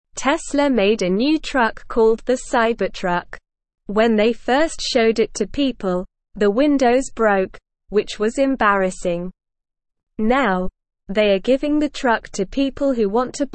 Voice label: Lower Intermediate SLOW Reading, English; Teslas Cybertruck A Big Mistake But Still Exciting